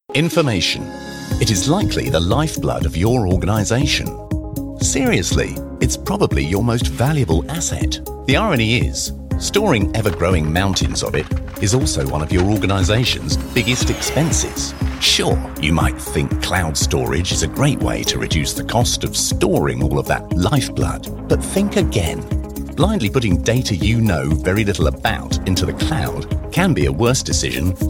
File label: corporate eng